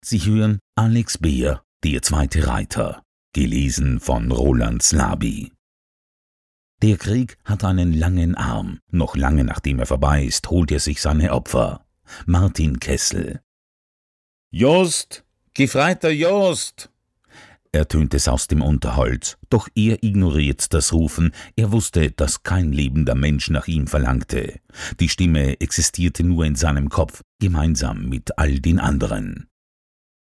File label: german voice audiobook, Deep Warm German Male Voice